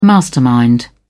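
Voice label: "mastermind", British English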